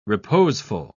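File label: "reposeful", British English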